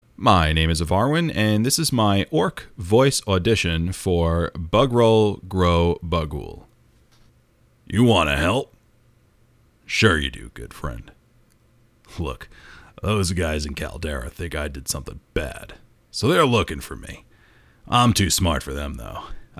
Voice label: orc voice auditions; bugrol gro bagul